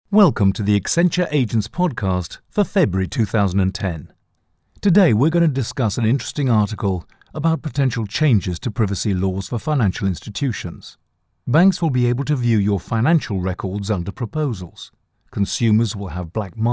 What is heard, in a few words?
Podcasts